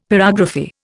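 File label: "pyrography", Indian English; Dictionary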